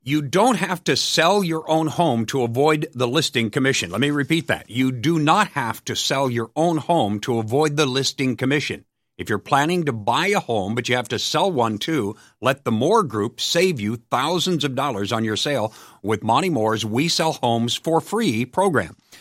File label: Radio Ad